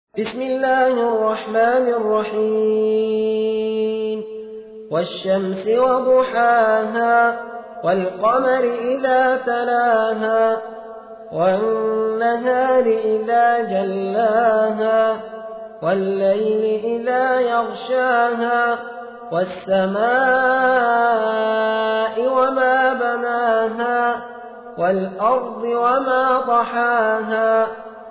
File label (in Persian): ترتیل; سوره شمس